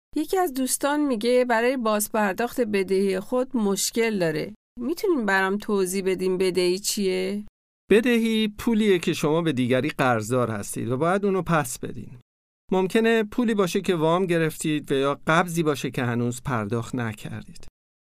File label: debt farsi